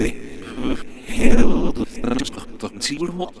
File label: Gibberish man norm pitch short